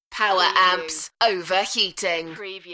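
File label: UK female, DJ vocals; beanstalk-audio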